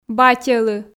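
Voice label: "батьӧлы", Komi, female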